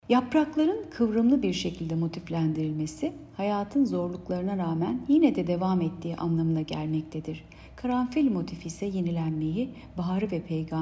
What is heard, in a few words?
turkce